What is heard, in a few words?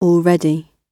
already, gb; english